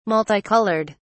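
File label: "multicoloured", English, British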